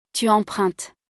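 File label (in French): "tu empruntes", French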